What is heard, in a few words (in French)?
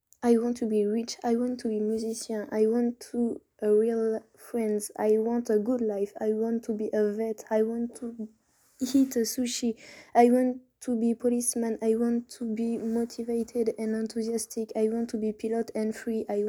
anglais slam